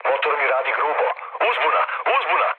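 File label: Serbian damaged engine mood low, speech; War Thunder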